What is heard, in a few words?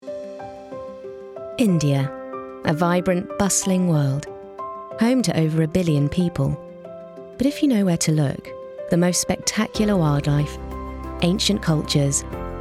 Documentary; Actress know for her roles in FX action/comedy adult animation series Archer